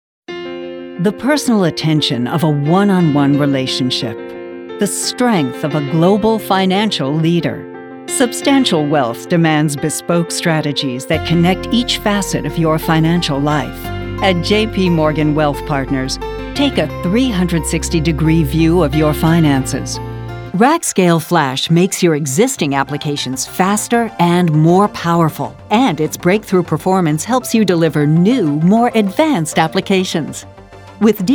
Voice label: corporate